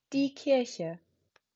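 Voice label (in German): "Kirche", German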